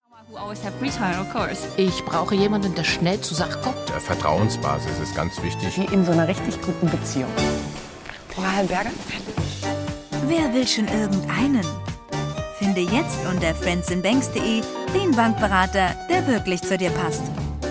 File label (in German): Kino Spot; Frankfurter Sparkasse